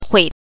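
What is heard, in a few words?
"hui", Mandarin Chinese pinyin, neutral tone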